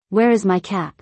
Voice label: Where is my cap? - English; Dress and Appearance